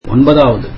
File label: tamil ninth